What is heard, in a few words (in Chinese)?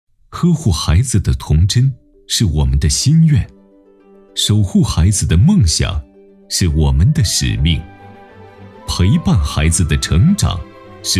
男 企业宣传 厚重优雅; 少儿连锁品牌